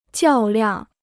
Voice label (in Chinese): "较量", Chinese